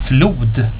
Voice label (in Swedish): "flod", swedish